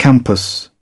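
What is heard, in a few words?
"campus", British English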